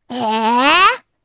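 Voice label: Vox Deny Japanese; Rephy; kingsraid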